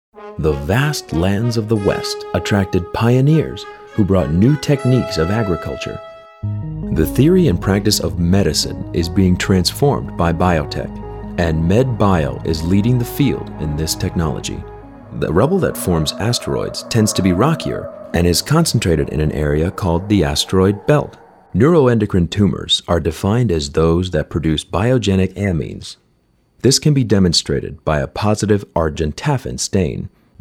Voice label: Narration